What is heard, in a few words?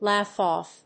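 "laugh off", English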